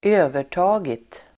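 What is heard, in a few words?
"övertagit", Swedish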